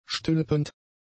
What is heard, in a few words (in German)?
"stülpend", German; adjectives